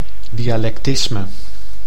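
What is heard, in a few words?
"dialectisme", Dutch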